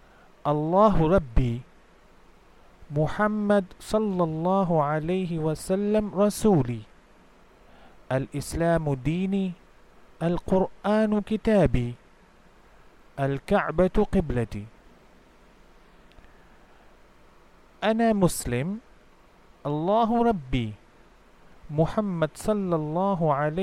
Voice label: arabic basic listening practice